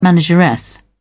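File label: "manageress", British English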